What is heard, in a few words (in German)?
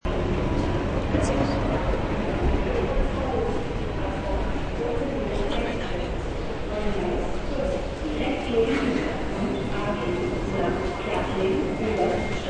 zugdurchsage bahnhof